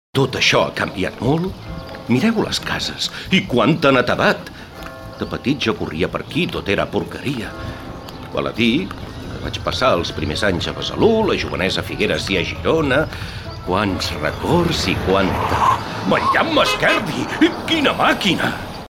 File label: catalan impersonations